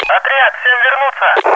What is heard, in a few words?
fallback, russian; radio; cstrike